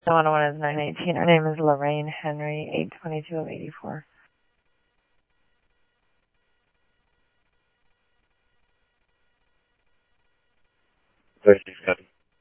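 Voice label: Simulcast police department, scanner audio; Mesa Simulcast; MESA PD 2 Centrl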